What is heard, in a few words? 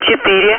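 Speech, Russian, air traffic control; Digits; DCS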